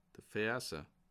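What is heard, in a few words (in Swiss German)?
"verse", Bas Rhin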